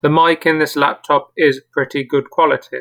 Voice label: Mic Test